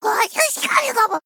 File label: Male Sprite